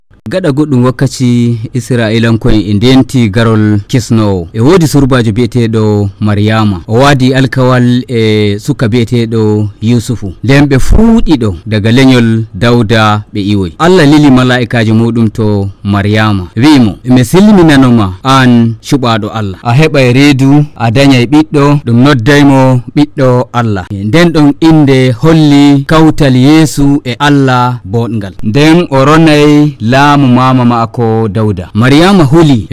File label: Fulfulde Nigerian; The Birth of Jesus